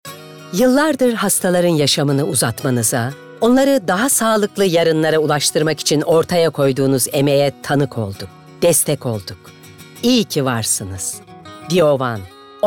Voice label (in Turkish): reklam